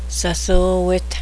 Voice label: water snake, cheyenne language

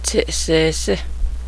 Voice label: elephant, cheyenne language

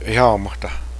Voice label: sick, Cheyenne

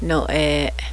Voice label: squirrel, cheyenne language

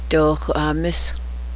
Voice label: shetland pony, cheyenne

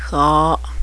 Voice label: skunk, cheyenne language

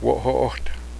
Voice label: mule, cheyenne

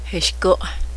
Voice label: leeches, cheyenne language

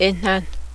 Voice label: gravy, Cheyenne

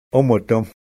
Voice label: "breath"

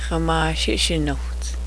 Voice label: rattlesnake, cheyenne language

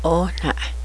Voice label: frog, cheyenne language

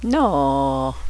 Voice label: wow female, Cheyenne

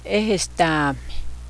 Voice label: has lice, Cheyenne

